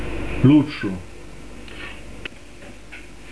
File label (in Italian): "lustru", pronuncia, dizionario carigliaro